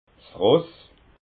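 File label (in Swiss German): "ross", Alsatian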